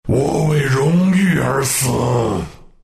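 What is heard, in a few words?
death, Chinese; dota2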